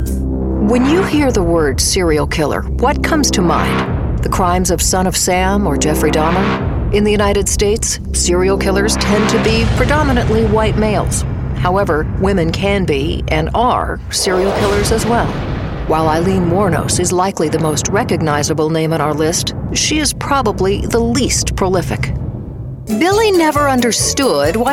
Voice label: TV Narration

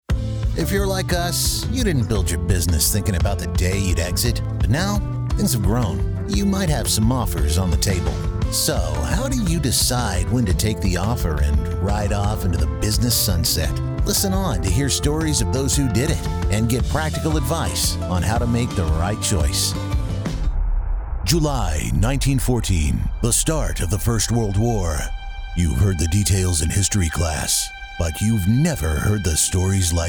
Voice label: Podcast Intro

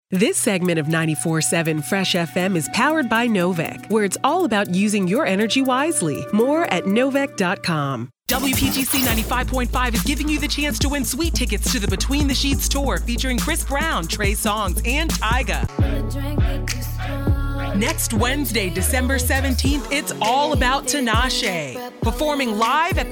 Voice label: Promo

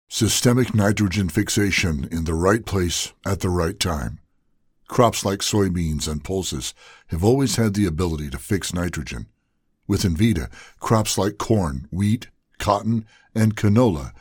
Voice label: Corporate Narration Instructional

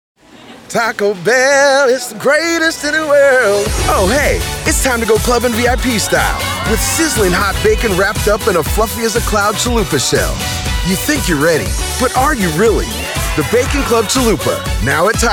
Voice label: Commercial

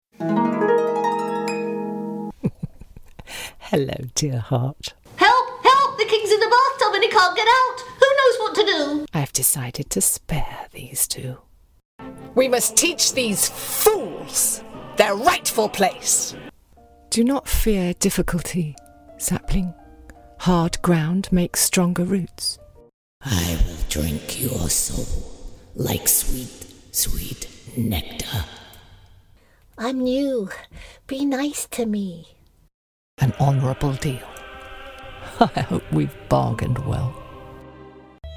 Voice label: Animation

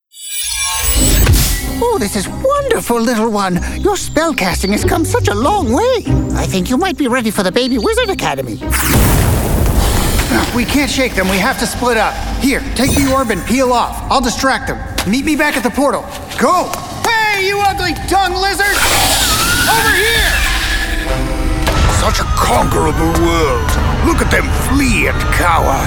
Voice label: Character

